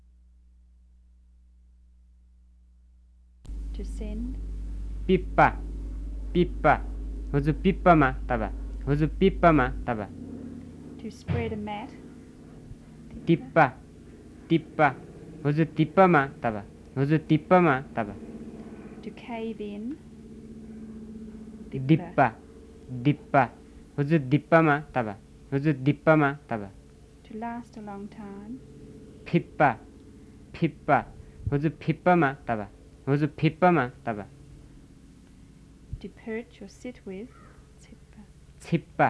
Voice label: Western Tamang